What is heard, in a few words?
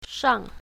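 "shang4", Chinese pinyin, south, female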